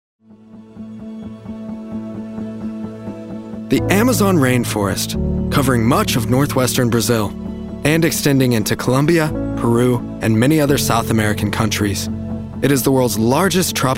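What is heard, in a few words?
Documentary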